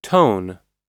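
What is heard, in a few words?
"tone", English; oxford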